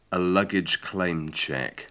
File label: a luggage claim-check, english